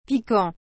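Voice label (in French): "piquant", French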